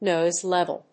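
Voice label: "noise level", English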